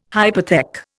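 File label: "hypothec", Indian English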